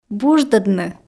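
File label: "буждӧдны", Komi, female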